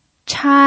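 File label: "chai", pinyin, first tone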